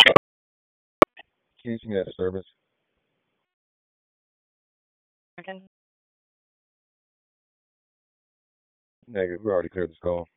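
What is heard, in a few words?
Police Downtown District Dispatch, p25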